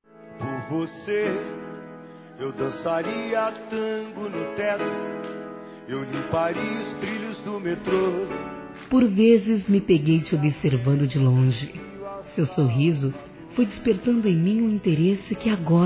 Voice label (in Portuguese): Paquera Feminino; Telemensagens 2017